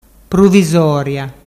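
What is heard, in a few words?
"pruvisoria", Ligurian dialect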